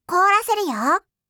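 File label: "koraseruyo", voice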